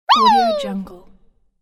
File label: cartoon pitched wee shout; fxprosound